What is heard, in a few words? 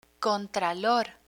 "contralor", Spanish